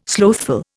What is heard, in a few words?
"slothful", Indian